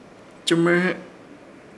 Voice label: "Chɨmɨrɨ̃hɨ", Ishir Chamacoco; revolver

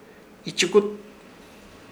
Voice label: "Ichukut", Ishir Chamacoco; ombrigo

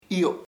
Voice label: cooked, chamacoco; cocinada

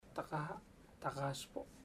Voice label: see you later, chamacoco; Bahia Negra

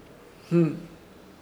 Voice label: "Hn", Ishir Chamacoco

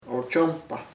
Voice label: good state, chamacoco; estada buena

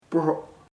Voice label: broken, chamacoco; roto

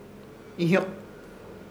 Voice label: "Ihyok", Ishir Chamacoco; llevar al hombre

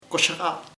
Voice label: thin, chamacoco; delgado

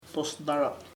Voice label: sad, chamacoco; triste

